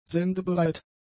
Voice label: "sendebereit", German; adjectives

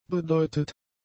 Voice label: "bedeutet", German; adjectives

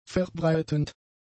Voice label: "verbreitend", German; adjectives

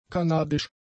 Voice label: "kanadisch", German; adjectives

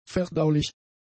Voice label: "verdaulich", German; adjectives

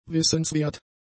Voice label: "wissenswert", German; adjectives

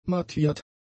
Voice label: "mattiert", German; adjectives

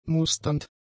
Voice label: "musternd", German; adjectives

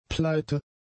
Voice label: "pleite", German; adjectives

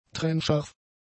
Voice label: "trennscharf", German; adjectives

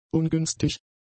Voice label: "ungünstig", German; adjectives

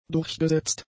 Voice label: "durchgesetzt", German; adjectives

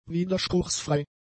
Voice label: "widerspruchsfrei", German; adjectives

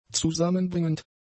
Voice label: "zusammenbringend", German; adjectives

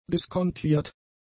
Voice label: "diskontiert", German; adjectives

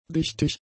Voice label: "dichtig", german; adjectives

